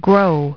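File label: "grow", English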